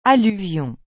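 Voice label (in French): "alluvion" French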